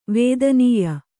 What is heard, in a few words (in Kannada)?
"ವೇದನೀಯ", Kannada